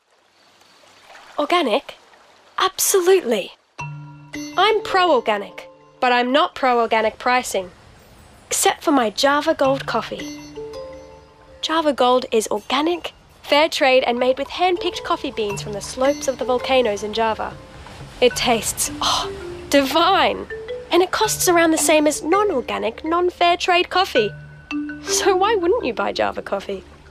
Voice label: Voice Over